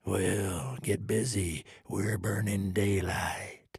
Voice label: trader male neutral quest accepted